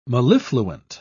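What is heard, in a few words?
"mellifluent", British English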